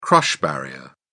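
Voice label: "crush barrier", British English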